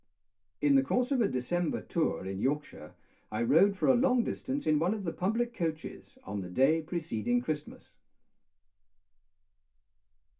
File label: male speech english